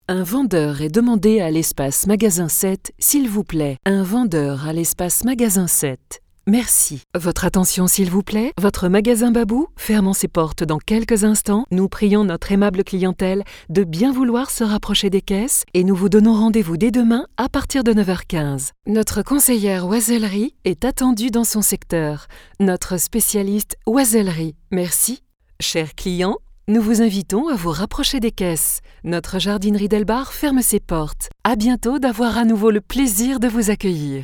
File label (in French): message instore, voix off feminine france